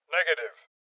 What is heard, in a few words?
Voices Refuse Male Old; rebel cops 2019 gamerip